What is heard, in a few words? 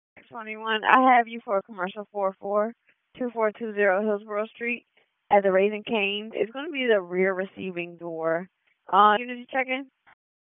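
Police Southwest District Disp, radio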